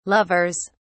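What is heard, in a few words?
"lovers", British English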